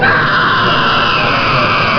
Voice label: distress, babble, sad